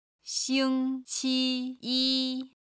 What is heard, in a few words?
"xing1 qi1 yi1", chinese